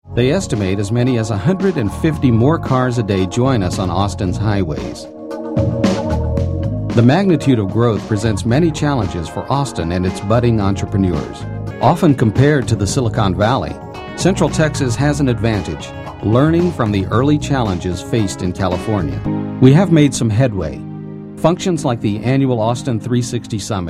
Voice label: narrations